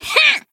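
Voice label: attack, Japanese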